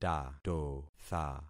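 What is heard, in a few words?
"tatotha", concatenated syllables; Affixing experiment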